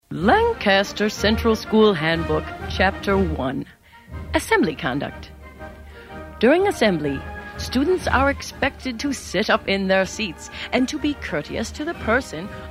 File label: Commercial Voice Demo; Track 16 Jane Hathaway like voice